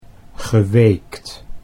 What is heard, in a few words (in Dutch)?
"geweekt", Dutch